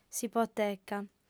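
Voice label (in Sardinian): "s'ipoteca", female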